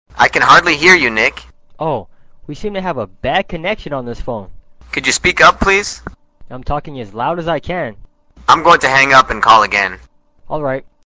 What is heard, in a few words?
ESL Adult conversation We Seem to Have a Bad Connection